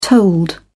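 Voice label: "tolled", British English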